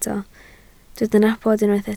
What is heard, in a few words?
"nabod", Welsh